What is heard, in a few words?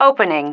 "opening", female